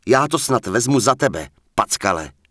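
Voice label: Human Male Standard NPC Pissed, Czech; wowpreklad; czwow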